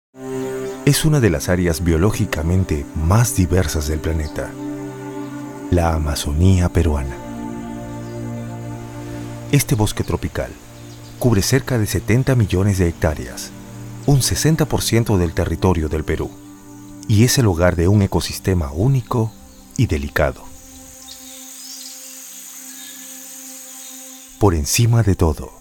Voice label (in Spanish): Institucional Calido